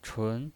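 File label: "chun", Mandarin Chinese pinyin voice, second tone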